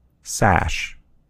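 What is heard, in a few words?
"sash", American English; gcompris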